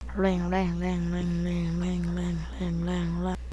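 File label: "ring", spoken word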